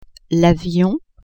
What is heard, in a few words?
"avion", French